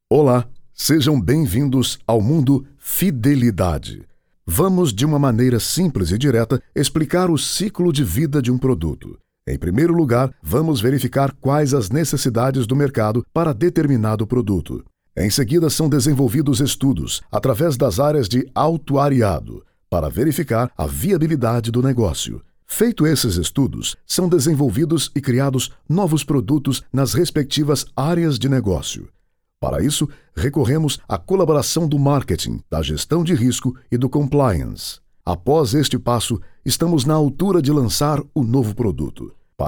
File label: portuguese brazilian e-learning